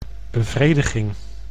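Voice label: "bevrediging", Dutch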